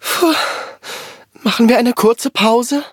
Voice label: defeat, de; Cookie Run

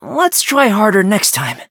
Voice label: defeat, en; cookierun

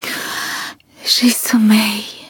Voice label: defeat, fr; Cookie Run

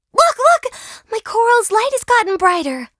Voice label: levelup en

